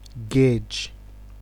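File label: "guage", Nigerian Pidgin, male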